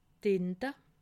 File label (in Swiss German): "tinte", alsace